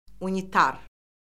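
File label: "unitar", Azerbaijani; Orfoqrafiya Lüğəti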